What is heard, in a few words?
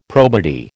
"probity", American